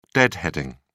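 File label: "deadheading", en, British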